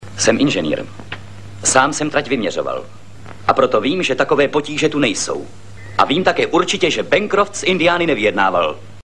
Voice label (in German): Hörprobe des tschechischen Schauspielers; Hier ist Lex tschechisch synchronisiert worden; Vladimír Ráž; Lex Barker